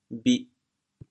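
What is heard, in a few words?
"bhih4", Teochew, chaoyang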